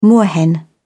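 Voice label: "moorhen", British English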